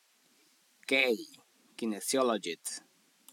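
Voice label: "K Kinesiologist", English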